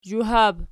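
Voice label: "zhuhab" nominative, Archi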